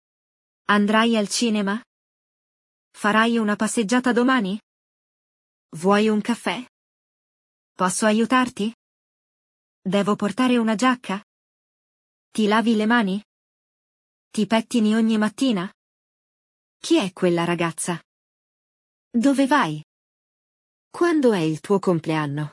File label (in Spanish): GRAMATICA CLASE ITALIANO